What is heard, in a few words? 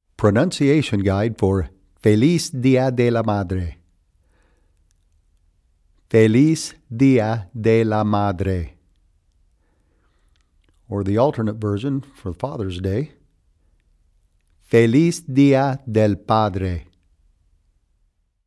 Pronunciation Guide